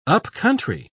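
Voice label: "upcountry", British English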